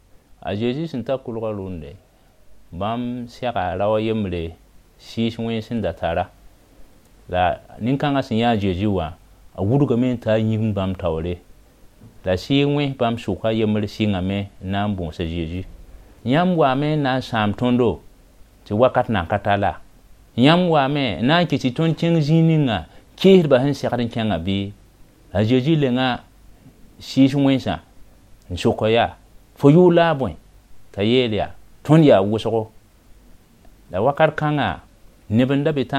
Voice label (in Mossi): Mossi Moore; Jésus et le démoniaque; Demons Cast Out